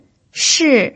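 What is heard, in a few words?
"shi", Mandarin Chinese pinyin, fourth tone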